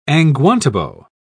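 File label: "angwantibo", British English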